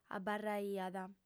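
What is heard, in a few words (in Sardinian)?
"abarraiat", lingua sarda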